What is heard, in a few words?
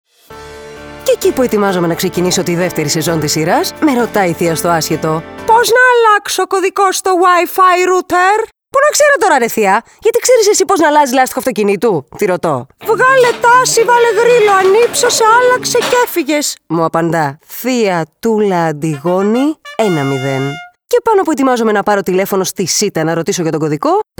character; Cyta; theia antigoni